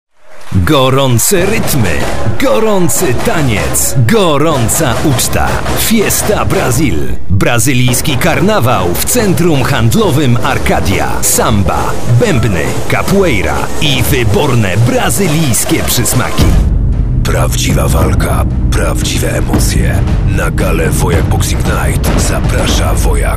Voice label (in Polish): REKLAMA